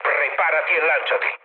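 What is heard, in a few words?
Italian bailout mood low, speech; War Thunder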